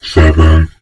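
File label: "seven", spoken word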